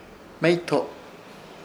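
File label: "Hmeyto", Chamacoco word, pajero; Ishir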